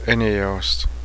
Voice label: stop, cheyenne language commands; Éneoestse!